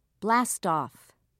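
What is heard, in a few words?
"blastoff", British English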